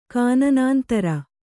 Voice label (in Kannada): "ಕಾನನಾಂತರ", Kannada